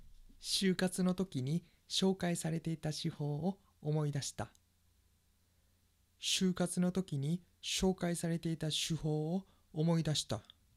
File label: shukatsu shuho, pronunciation